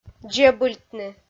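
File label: "дзебыльтны", Komi, female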